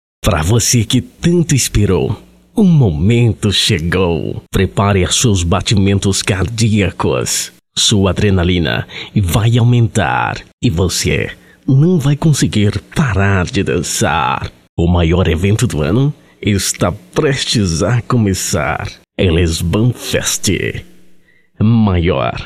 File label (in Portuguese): offs locucao